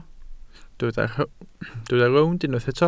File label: "rownd", Welsh